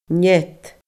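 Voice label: "nead", Scottish Gaelic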